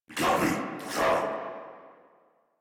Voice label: Patrol, Battle Barks